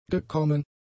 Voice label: "gekommen", German; adjectives

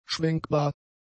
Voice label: "schwenkbar", German; adjectives

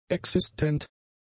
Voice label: "existent", German; adjectives

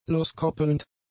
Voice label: "loskoppelnd", German; adjectives

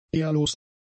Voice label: "ehrlos", german; adjectives

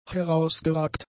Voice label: "herausgeragt", German; adjectives

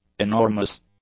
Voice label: "enormous", English; adjectives

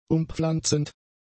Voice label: "umpflanzend", German; adjectives

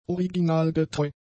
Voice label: "originalgetreu", German; adjectives

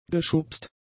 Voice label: "geschubst", German; adjectives

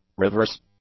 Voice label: "reverse", English; adjectives

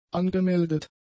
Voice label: "angemeldet", German; adjectives

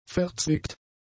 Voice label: "verzwickt", German; adjectives

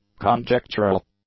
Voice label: "conjectural", English; adjectives

